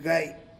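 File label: "five", Tlacolula Zapotec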